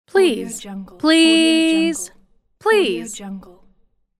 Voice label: "please", female voice